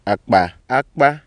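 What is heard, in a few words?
ewe low low high high 2 syllable, tone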